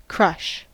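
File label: "crush", US English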